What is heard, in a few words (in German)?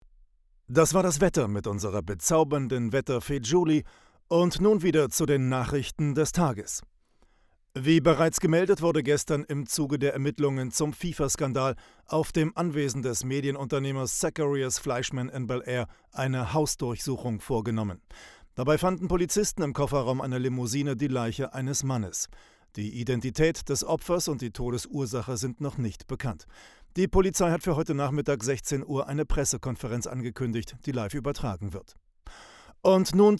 TV Stimme News